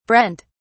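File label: "brent", British English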